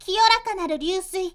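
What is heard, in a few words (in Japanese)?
magi girl "kiyorakanaruryusui", Japanese; magi syozyo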